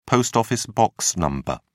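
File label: "post-office box number", British English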